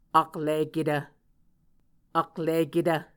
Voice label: "akliketv", Muscogee; Mvskoke Words with Voicing Consonants; A Dictionary of Creek/Muskogee; SIL International